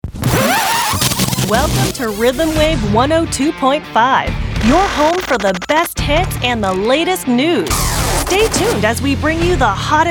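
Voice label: RADIO SPOT WITH SFX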